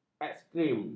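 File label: "ekstrem", Malay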